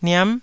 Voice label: "niam9", taiwanese